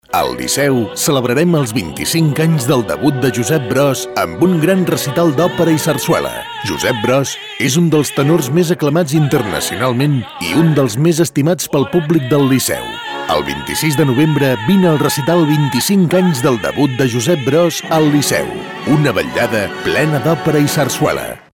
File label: locutor catalan catalonian voiceover